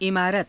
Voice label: "imaarata", Hindi

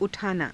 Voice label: "u thaanaa", hindi

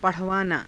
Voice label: "pa_rhavaanaa", Hindi

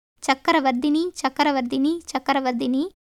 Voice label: Tamil

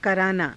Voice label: "karaanaa", Hindi

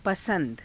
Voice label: "pasa^da", Hindi; पसंद; [女]好み

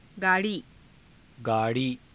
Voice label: "gaa rii", hindi; गाड़ी; [女]車，汽車

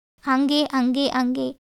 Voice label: Tamil

